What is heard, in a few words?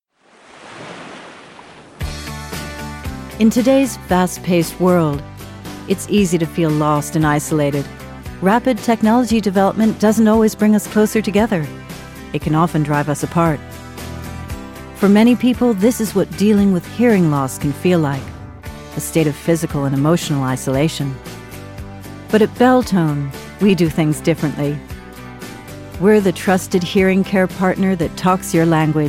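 American English, Corporate; voice demos; GoLocalise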